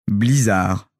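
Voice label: "blizzard", French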